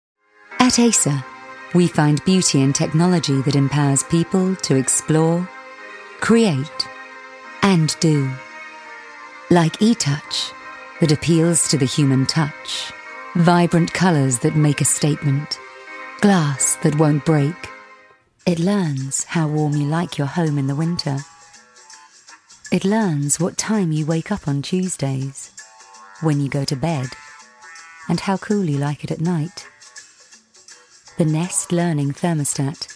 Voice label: natural voice

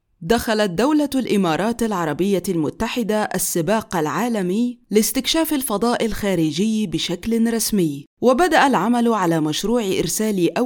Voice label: documentary

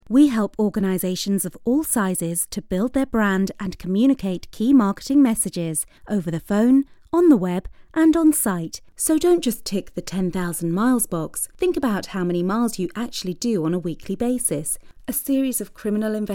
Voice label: Explainer and studio sample

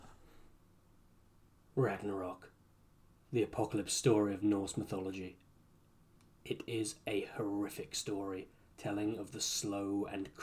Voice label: Audiobook Narration Reel

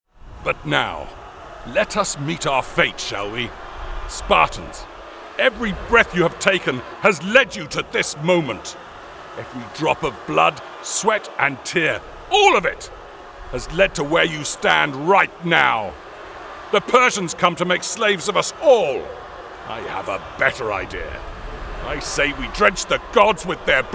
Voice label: Gaming and Cinematic Trailer

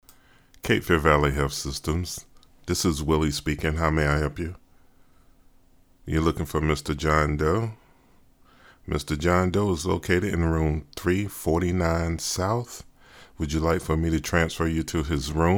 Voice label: Telephony

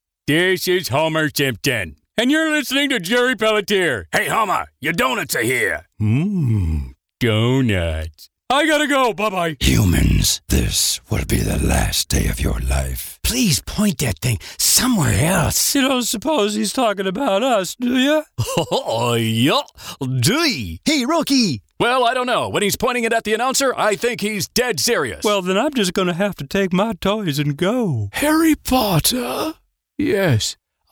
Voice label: Character

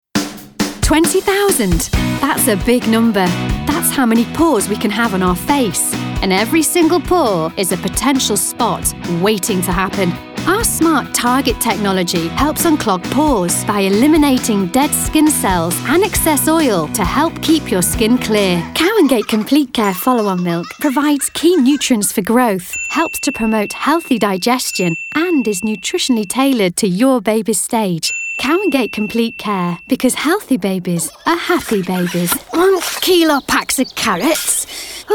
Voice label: commercial montage

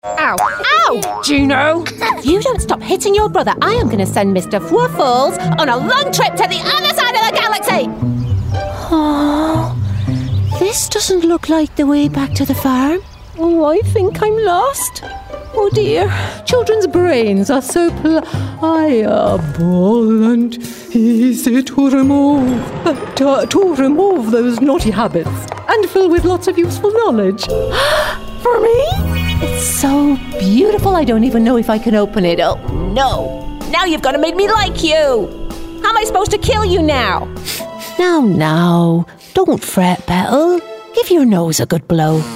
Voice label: ANIMATION

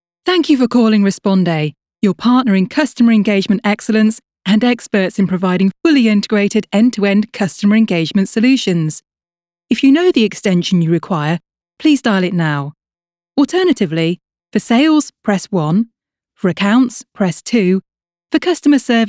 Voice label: ivr

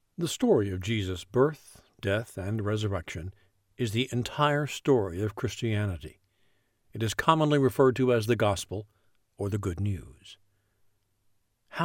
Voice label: Christian Narration Podcast

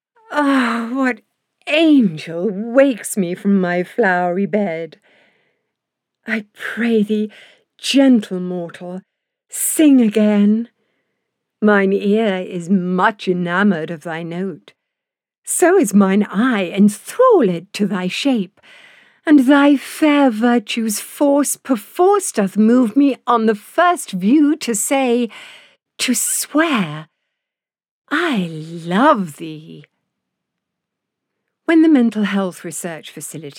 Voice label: Shakespeare and Documentary

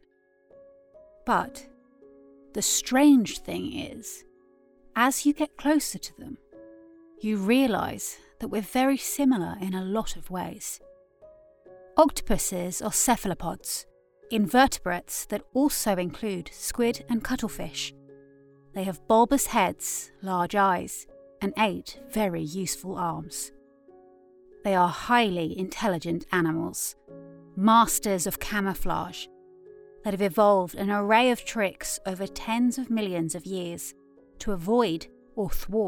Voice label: Narrative

